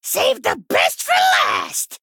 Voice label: Male Goblin

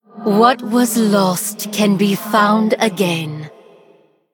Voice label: female human ghost, Play

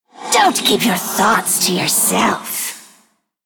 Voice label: Female Forsaken Play

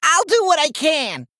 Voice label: Male Gnome Play

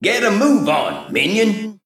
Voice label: male mech

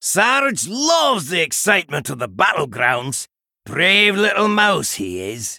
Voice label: Male Dwarf

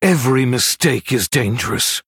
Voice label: Male Human Oops

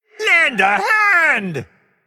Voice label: Male Undead Attack

